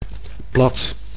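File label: "plat", Dutch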